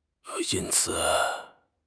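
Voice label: "yin1 ci3", Mandarin Chinese; HSK4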